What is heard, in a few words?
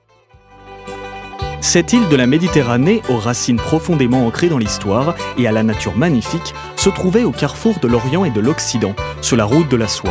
french male documentary